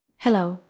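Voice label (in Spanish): Voice Acting, es, female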